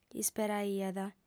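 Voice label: "isperaiat", female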